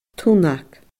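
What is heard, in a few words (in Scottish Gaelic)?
"tunnag", Scottish Gaelic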